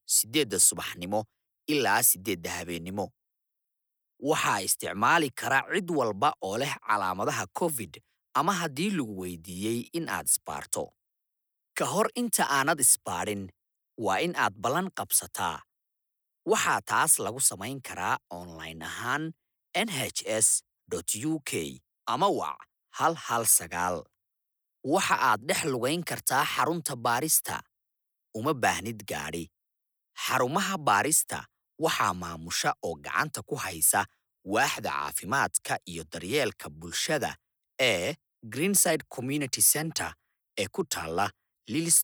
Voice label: somali coronavirus testing centres in westminster with book in advance policy